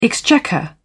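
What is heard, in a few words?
"exchequer", British English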